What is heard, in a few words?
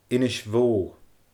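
"innis-bhó", Scottish Gaelic; cattle pasture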